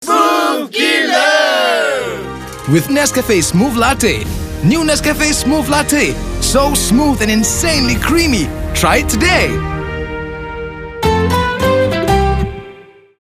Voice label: ENG Casual; Nescafe Smoovlatte

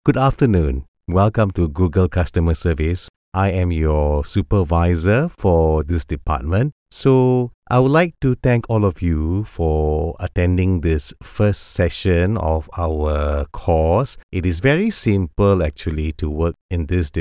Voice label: English, Malaysian Accent, Local accent; Home Studio; English Malaysian Accent Home Studio Local accent 1

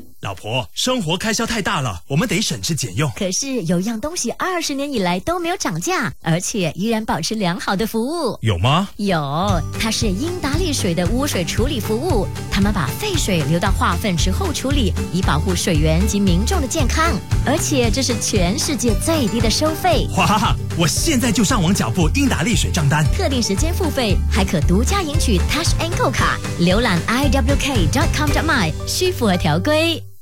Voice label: mature; Indah Water

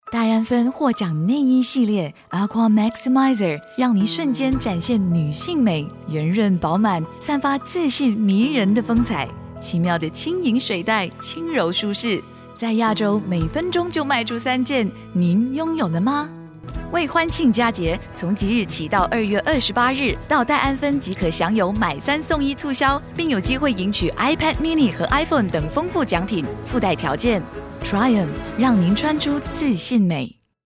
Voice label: Soft

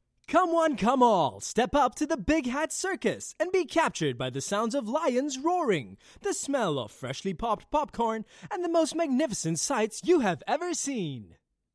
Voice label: Home Studio Sample Bright Read

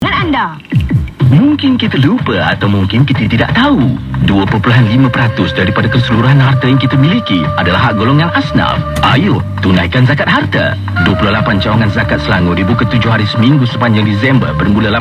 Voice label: FM; ZAKAT SELANGOR; HOT FM

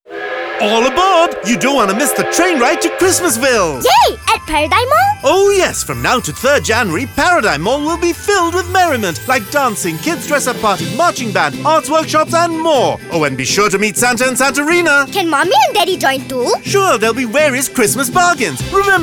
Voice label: ENG; PARADIGM MALL; CHRISTMAS PROMOTION

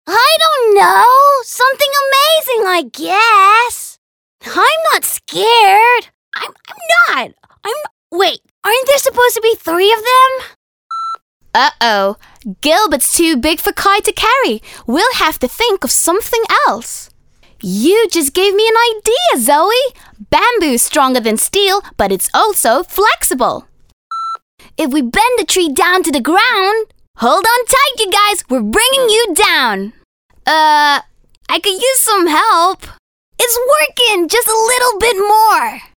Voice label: Kid Voices

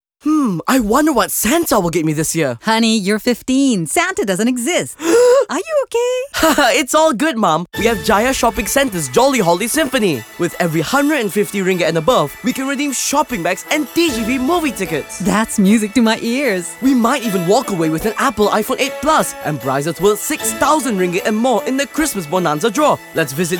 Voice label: ENG; JSC; A MUSICAL CHRISTMAS CAMPAIGN

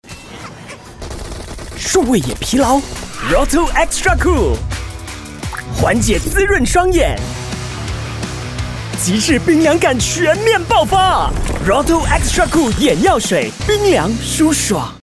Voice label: Mandarin - Explosive, Commander, High Energy; Rohto

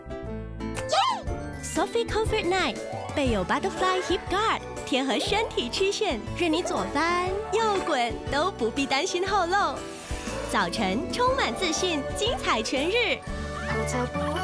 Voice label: Mandarin; SOFY COMFORT NITE

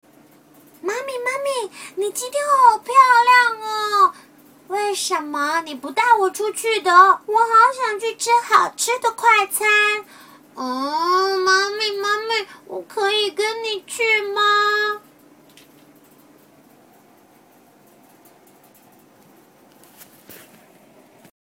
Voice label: children

